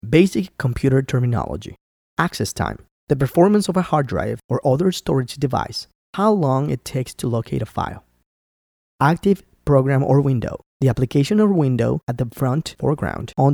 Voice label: Corporate Training